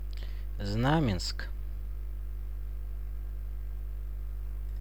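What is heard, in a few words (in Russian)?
"Znamensk" Russian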